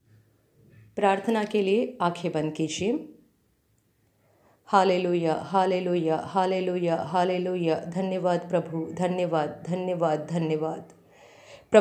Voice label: Hindi Prayer